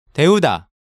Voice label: "Deuda", Korean